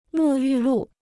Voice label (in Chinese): "沐浴露", Chinese